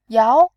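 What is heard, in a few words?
"yao", Mandarin Chinese, tone 2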